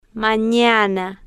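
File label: "manana", Spanish, slow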